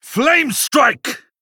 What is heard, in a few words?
Male Blood Elf Attack